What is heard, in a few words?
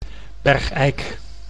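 "Bergeijk", Dutch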